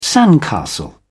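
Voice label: "sandcastle", British English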